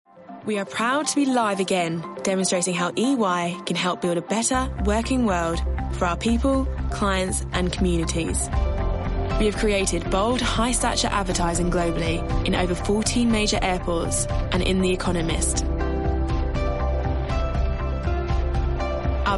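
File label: English, female, Corporate; corporate voice over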